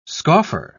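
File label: "scoffer", British English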